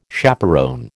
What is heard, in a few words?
"chaperon", American